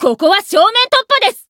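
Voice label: Voice Japanese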